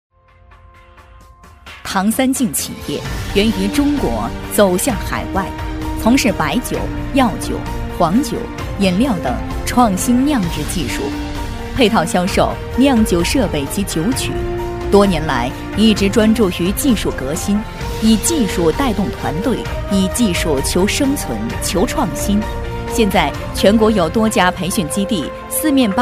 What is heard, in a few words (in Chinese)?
女 宣传片配音 企业宣传-恢弘大气, 沉稳大气